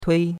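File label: "tui1", Mandarin Chinese; Cours-de-Chinois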